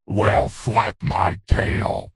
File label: upset; croc; fertig classic games